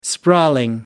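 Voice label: "sprawling", British English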